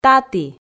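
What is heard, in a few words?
"таті", Komi, female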